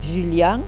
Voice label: "julian", Occitan